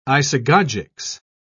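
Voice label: "isagogics", British English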